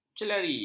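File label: "celari", Malay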